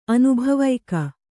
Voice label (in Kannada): "ಅನುಭವಿಕ", Kannada